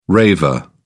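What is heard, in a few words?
"raver", British English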